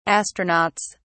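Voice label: "astronauts", British English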